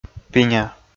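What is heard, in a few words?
"пиня", Komi, male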